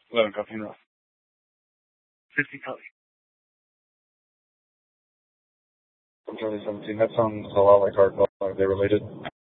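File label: police dispatch, scanner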